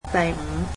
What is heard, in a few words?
"fifth", cantonese